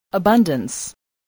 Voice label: "abundance", English voice